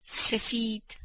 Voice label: "sefid", Persian